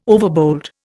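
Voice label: "overbold", Indian English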